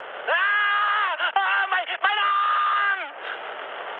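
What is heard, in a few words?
German damaged pilot mood high, speech; War Thunder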